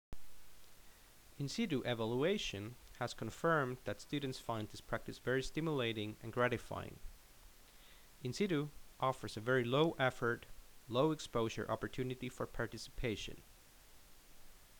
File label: Narration